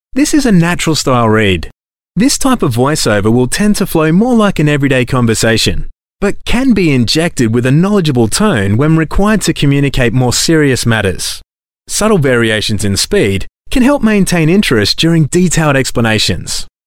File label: on hold messages male